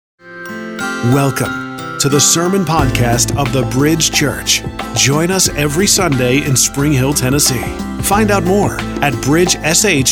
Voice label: Podcast